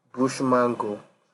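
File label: "bushmango", Nigerian Pidgin, male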